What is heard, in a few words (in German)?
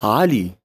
"Ali", arabische Jungennamen